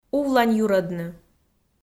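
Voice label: "увланьюрӧдны", Komi, female; audio dict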